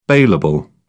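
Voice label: "bailable", British English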